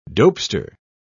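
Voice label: "dopester", British English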